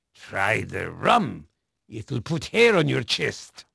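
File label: Dutch male, voice; Pirates Of The Caribbean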